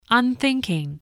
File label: "unthinking", English spelling voice